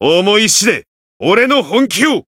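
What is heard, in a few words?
Voice variable chant, Japanese; majuou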